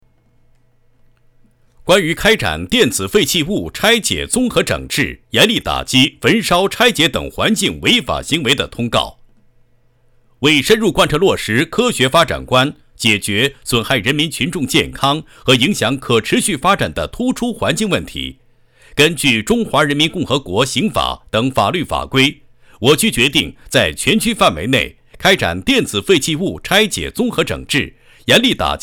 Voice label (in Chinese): 通告